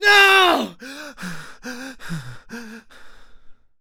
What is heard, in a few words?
Panic; zps; Humans; HumanMale-01